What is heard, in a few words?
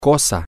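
thing, Spanish, male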